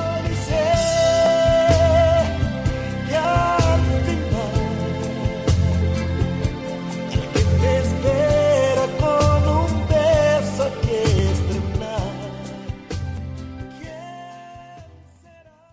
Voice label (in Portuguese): Romântica Eu e Você